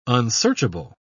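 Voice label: "unsearchable", British English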